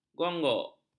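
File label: "gonggok", Malay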